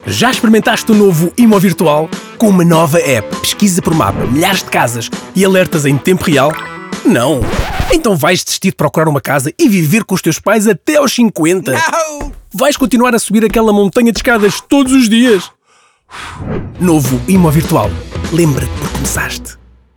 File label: RADIO; Imovirtual